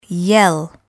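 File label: "yell", English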